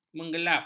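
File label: "menggelap", Malay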